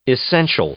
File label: "essential", English; adjective